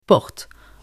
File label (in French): porte, pronunciation; pronunciation rule